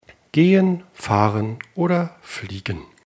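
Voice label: "gehen", German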